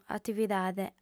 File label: "atividade", audiofem